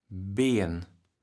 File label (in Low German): "Been", kaevels platt